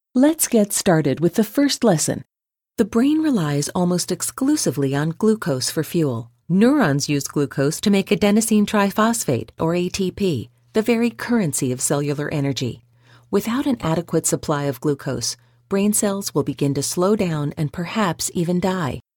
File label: English USA